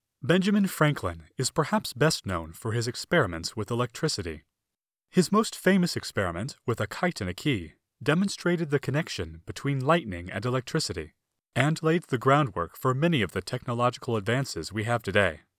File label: foreigner